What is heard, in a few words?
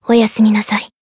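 Voice Japanese; maturika; finish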